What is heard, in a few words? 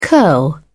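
"curl", British English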